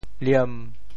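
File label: "liem1", Teochew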